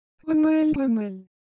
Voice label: Korean, Ideo Phones; Texture